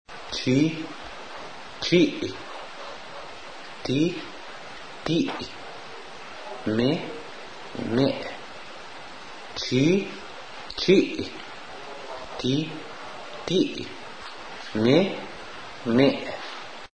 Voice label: citime, Tzotzil Pronunciation